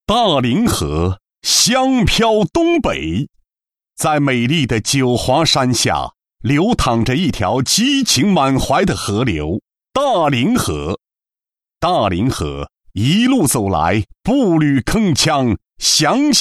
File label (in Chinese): 男 专题; 大凌河专题